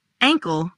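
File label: "Ankle", English; body parts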